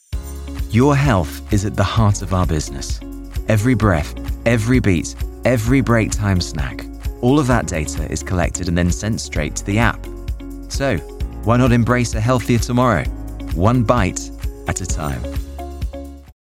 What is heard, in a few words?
Corporate Health Online Video, voice-over